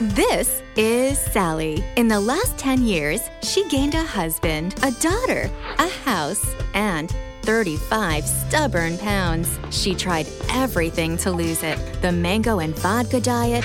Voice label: US narration

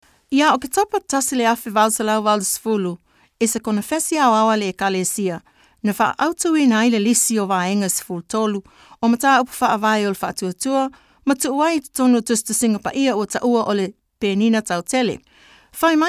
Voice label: SAMOAN narration

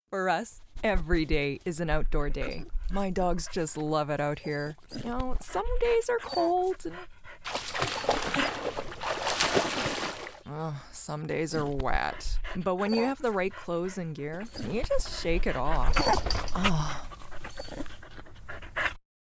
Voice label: US natural

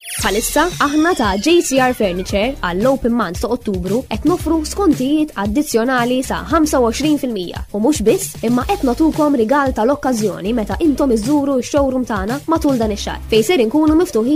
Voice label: MALTESE

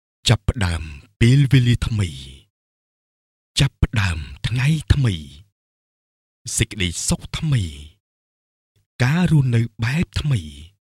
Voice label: Khmer

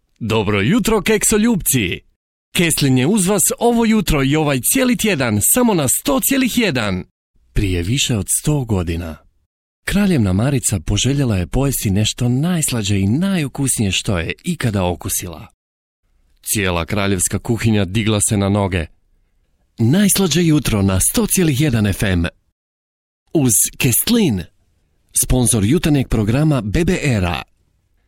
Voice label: radio

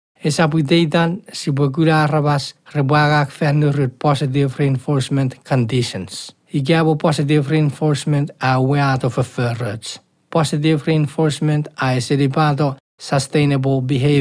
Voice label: Chuukese elearning

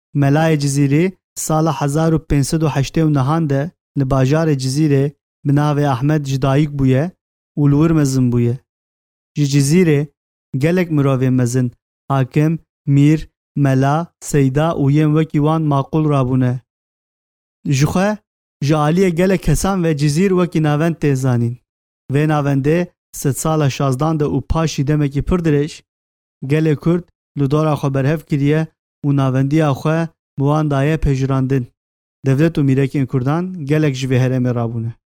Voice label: KURDISH SORANI

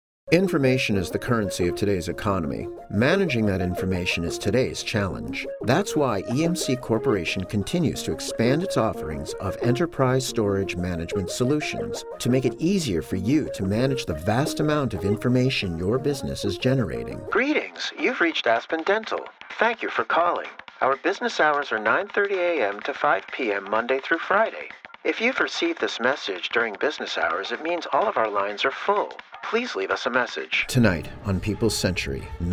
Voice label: US narration

